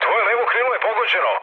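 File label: Serbian left wing mood low, speech; War Thunder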